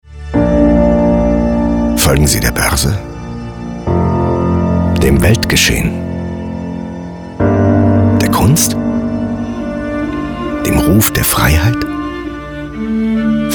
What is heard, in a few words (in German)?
de image, deutsch maenner; sprecher